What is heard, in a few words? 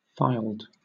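"filed", British English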